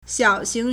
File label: "xiao3xing2", Chinese